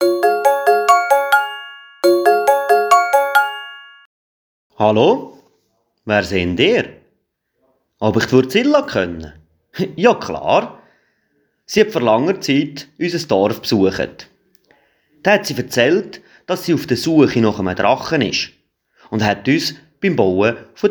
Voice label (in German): Posten Zwerge mit Jingle